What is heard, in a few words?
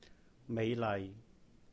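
"美麗", Cantonese; Beautiful; méih-laih; mei5 lai6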